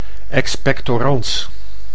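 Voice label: "expectorans", Dutch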